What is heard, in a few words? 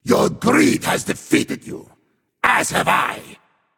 taunt directed; smite; guan yu; fafnir